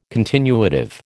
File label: "continuative", American